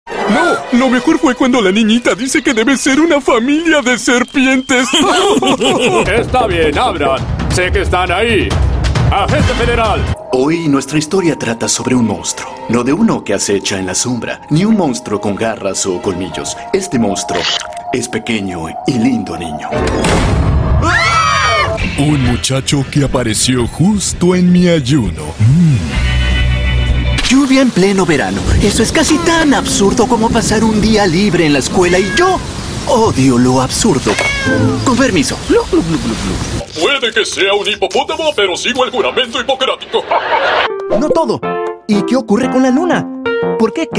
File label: Latin Spanish Animation Cartoon